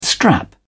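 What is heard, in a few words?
"strap", British English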